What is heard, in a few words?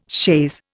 "chaise", Indian